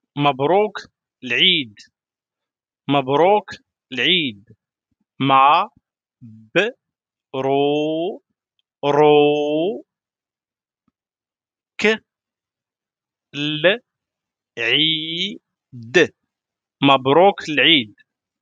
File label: how to say mabrouk l eid in moroccan arabic; how to wish happy eid morocco